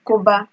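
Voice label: "koba", Nigerian Pidgin, female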